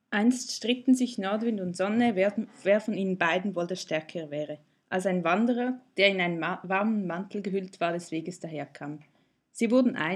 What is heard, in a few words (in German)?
Nordwind Standard